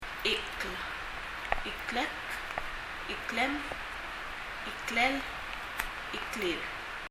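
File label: "chikl" Palauan, neck; 首・neck; 発音; 身体の各部位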